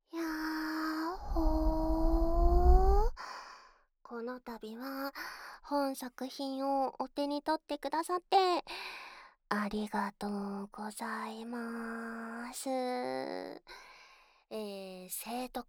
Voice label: フリートーク

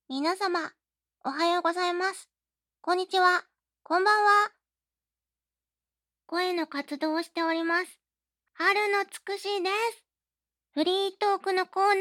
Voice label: フリートーク

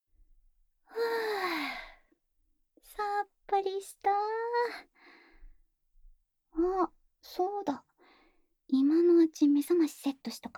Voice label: 添い寝; １人と１匹で、いつまでも幸せになりましょう

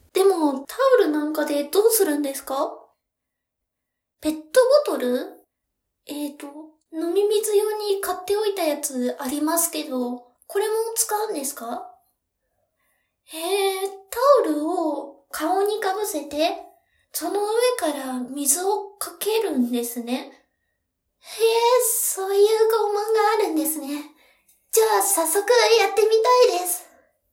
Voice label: ウォーターボーディングの説明, ASMR

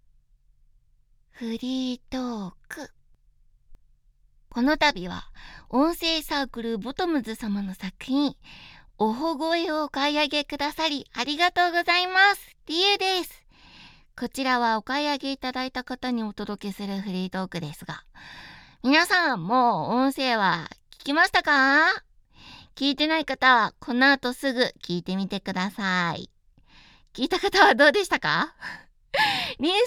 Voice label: フリートーク

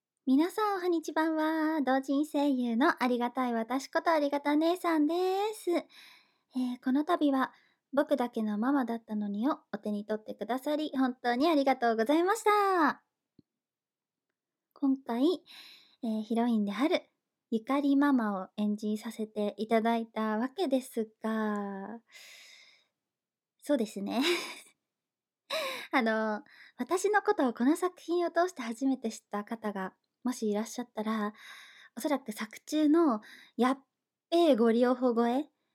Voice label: フリートーク, ASMR

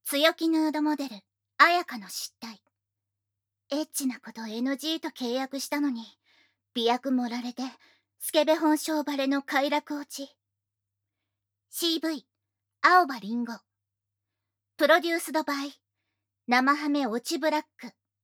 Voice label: タイトルコール, ASMR

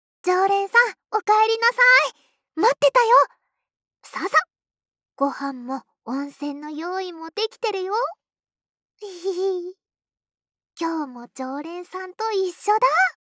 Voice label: シチュエーションボイス; 10日間限定特典 和み処 一番星～距離感の近い甘えん坊な仲居『月さん』が一生懸命ご奉仕する癒しの 一日～

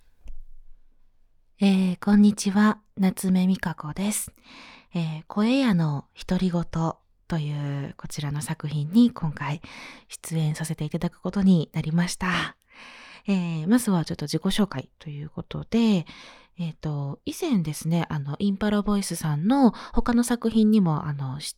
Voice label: ノイズ除去 自己紹介から質問まで

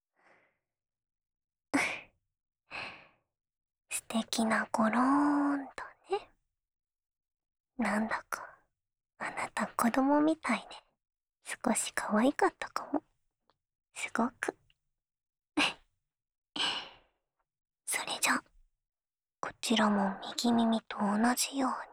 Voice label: ささやかれながら左の耳かき, ASMR

